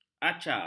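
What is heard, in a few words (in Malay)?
"acar", Malay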